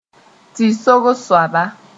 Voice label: "tɩ-sogs soaba", Moore; magician